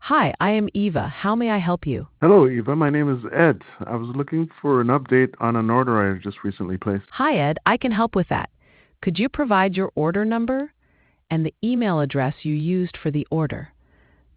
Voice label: conversation